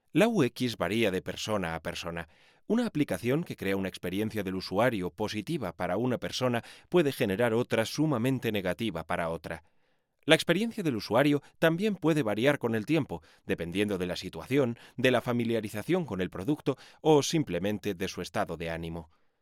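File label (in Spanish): e-learning, Spain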